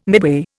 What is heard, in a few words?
"midway", Indian